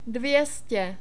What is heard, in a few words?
"dvě stě", Czech; numbers